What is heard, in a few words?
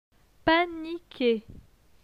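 "Panique", French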